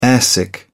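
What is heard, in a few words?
"airsick", British English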